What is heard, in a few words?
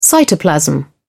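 "cytoplasm", British English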